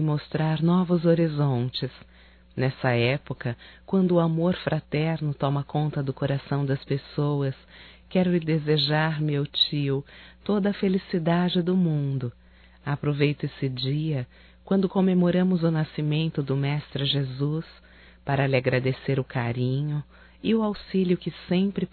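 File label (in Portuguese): NATAL TIO, feminino; Telemensagens 2017; Natal & Ano Novo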